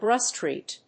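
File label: "Grub Street", English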